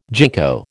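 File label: "gingko", American English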